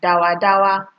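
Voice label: "dawadawa", Nigerian Pidgin, female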